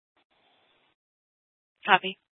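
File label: police department Dispatch, scanner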